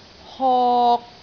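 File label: "hok3", Cantonese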